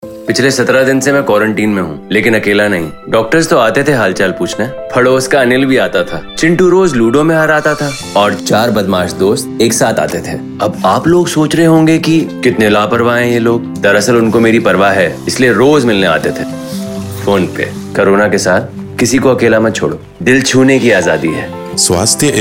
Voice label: HINDI RADIO SPOT; COVID SURVIVOR